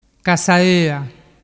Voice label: "cassaoa", dialettu

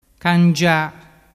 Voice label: "cangia", dialettu

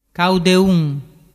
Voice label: "caudeun", dialettu

